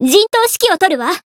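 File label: variable chant, Japanese; renri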